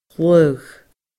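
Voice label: "lagh", Scottish Gaelic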